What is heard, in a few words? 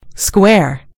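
"square", British English; gcompris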